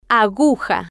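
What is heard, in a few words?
"aguja", Spanish, female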